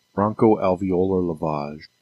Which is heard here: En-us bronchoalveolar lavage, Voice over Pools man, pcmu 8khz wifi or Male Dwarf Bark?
En-us bronchoalveolar lavage